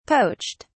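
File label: "poached", British English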